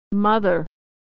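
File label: "mother", American English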